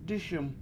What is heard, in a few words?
"dIS1m" Song, Washo